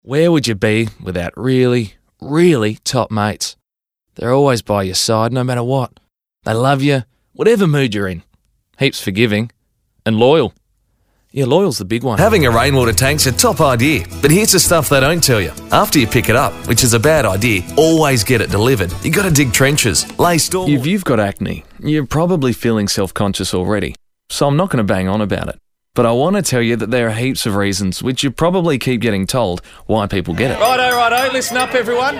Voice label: Radio TV